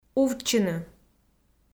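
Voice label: "увтчыны", Komi, female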